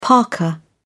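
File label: "parka", British English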